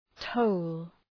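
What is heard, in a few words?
"toll", English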